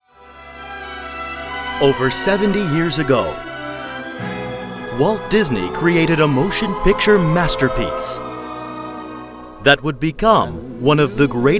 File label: Movie Video Game Trailer